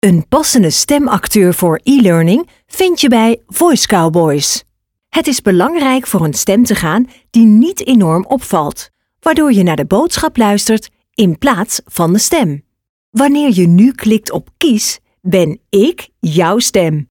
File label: ELEARNING